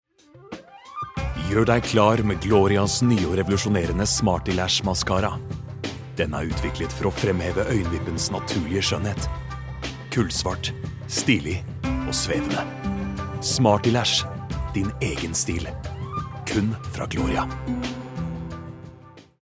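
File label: Norwegian soft sell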